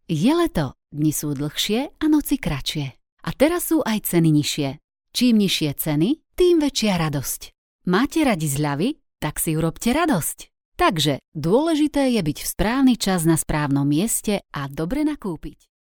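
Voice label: Slovak, female, narration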